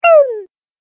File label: Cartoon Game Voice "Boom"